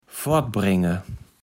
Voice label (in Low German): "fortbrenge", kaevels platt